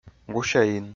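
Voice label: "гусяин", Komi-Zyrian, male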